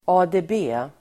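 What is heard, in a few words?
"ADB", Swedish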